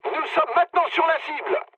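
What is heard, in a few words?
near waypoint, mood medium, French; War Thunder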